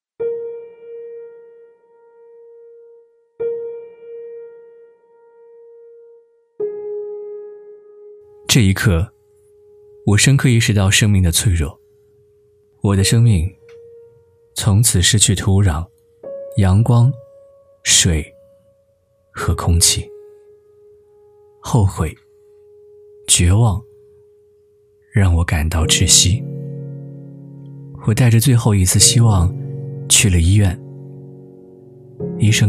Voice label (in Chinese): 男 旁白独白 第一人称述 艾滋病感染